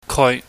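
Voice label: "koi", Cantonese, mid tone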